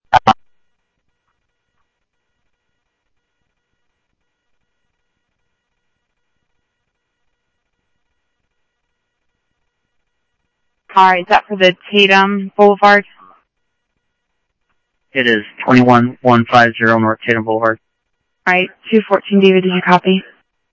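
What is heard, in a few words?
Phoenix police department, scanner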